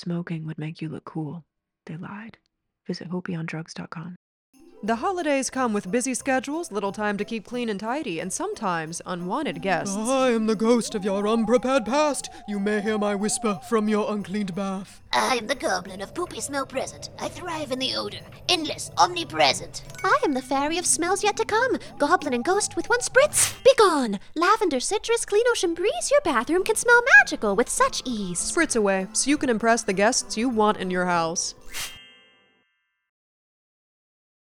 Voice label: Voice Over